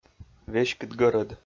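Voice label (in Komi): веськыд гӧрӧд, Komi, male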